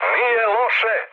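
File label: Serbian trick mood med; speech; War Thunder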